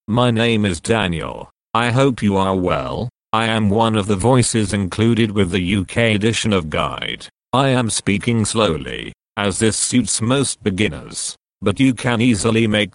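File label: Speed UK, British English, male; Daniel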